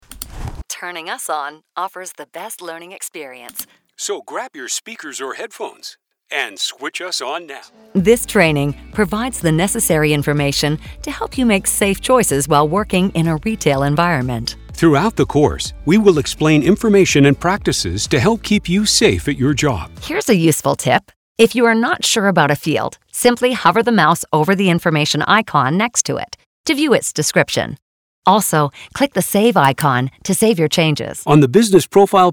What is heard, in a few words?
Elearning